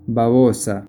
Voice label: spanish

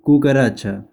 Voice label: spanish; Cockroach

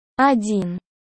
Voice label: One russian

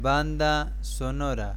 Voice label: Soundtrack spanish

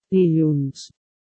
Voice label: Monday catalan

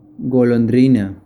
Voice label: spanish

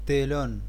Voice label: spanish

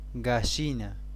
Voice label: Chicken spanish

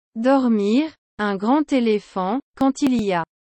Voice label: Dormir un grand elephant quand il y a french

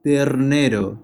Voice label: Calf spanish

